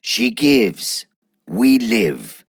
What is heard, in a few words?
Ost Male en; Cetus